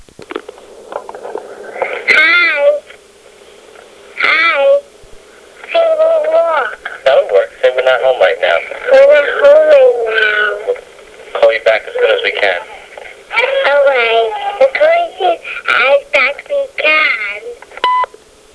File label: answering machine message